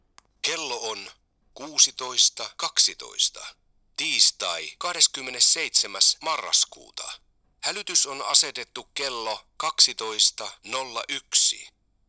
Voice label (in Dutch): Fi man